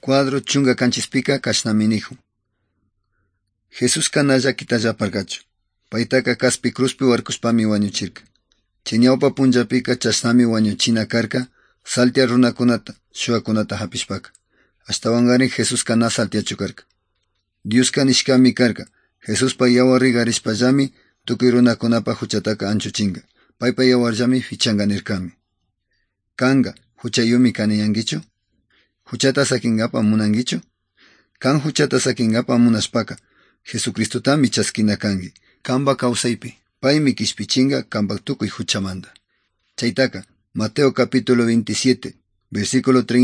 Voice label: good news quichua otovalo; quechua; bible